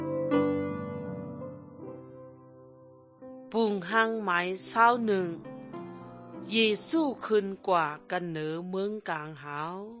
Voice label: good news shan eastern, drama; shan thailand bible society nt drama